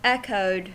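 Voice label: "echoed", American English; pronunciation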